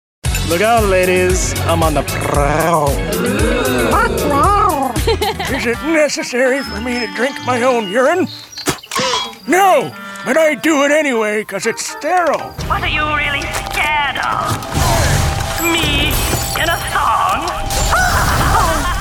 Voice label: Animation